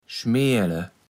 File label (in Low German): "Schmeele", platt